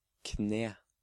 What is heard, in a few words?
"Kne", Norwegian